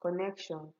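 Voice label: "connection", Nigerian Pidgin, female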